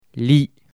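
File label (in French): "lit", French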